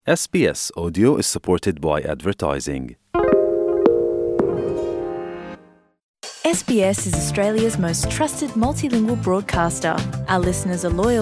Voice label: gujarati newsflash; SBS Gujarati